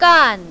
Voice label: "gan4", mandarin, female